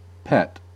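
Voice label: "pet", American English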